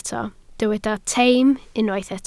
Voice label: "teim", Welsh